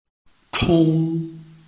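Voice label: "chong", Chinese, tone 1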